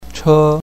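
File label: "che1", Mandarin Chinese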